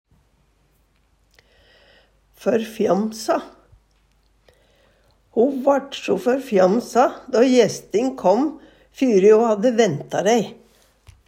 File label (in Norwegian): "førfjamsa", Numedalsmål; Ho vaRt so førfjamsa då jestin kom fyri ho hadde vænta dæi.; Adjektiv